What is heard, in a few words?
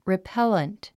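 "repellent", English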